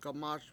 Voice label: throw it in, Washo; gamAS